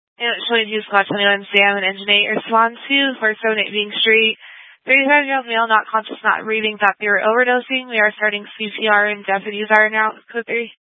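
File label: Fire Dispatch, Scanner audio recording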